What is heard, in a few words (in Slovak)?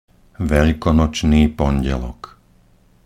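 "veľkonočný pondelok", Slovak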